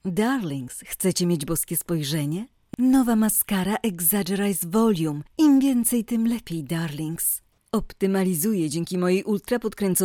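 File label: Polish Commercial; voice demos; GoLocalise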